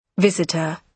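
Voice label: "visitor", British English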